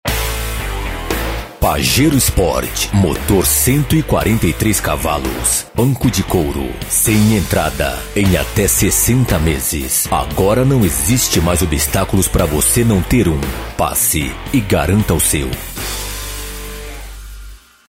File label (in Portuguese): spot; pajero sport